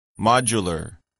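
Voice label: "modular", English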